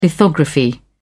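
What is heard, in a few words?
"lithography", British English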